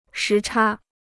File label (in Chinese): "时差", Chinese